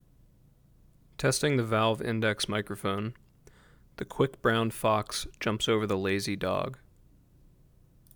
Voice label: Valve Index mic